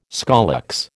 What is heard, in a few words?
"scolex", American